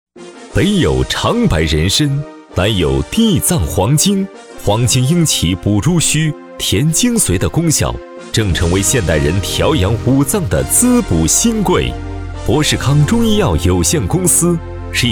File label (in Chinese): 男 广告 中医药 激情大气; 博世康中医药